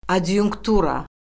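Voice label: "adyunktura", Azerbaijani; Orfoqrafiya Lüğəti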